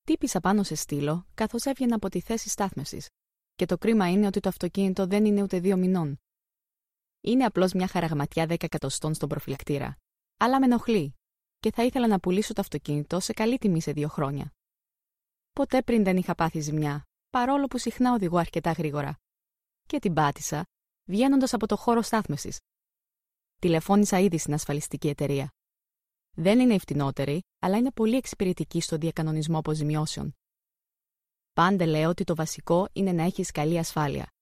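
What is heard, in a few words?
Greek, female, corporate, character; voice over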